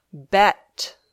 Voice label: "bet", English